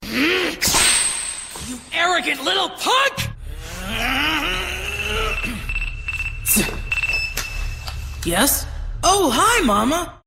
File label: teen boy boy villain hacker teenager boy voice anime evil aggressive angry